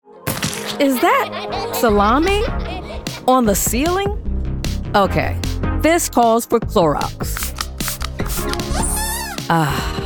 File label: Commercial; Chlorox